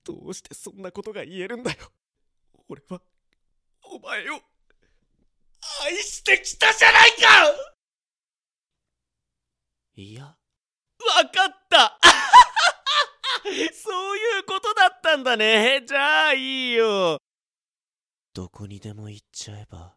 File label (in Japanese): セリフ-叫び・ヤンデレ